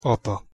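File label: "apa", Hungarian pronunciation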